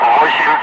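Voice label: "5", spoken; Ka-50; Wingman; Digits; DCS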